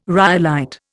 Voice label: "rhyolite", Indian